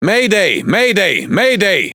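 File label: Speech Quick Commands Sos, German; World Of Warships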